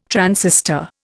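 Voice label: "transistor", Indian English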